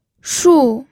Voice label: "shu4", pinyin